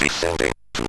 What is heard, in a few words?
MOS6581R3, Electronic Speech Systems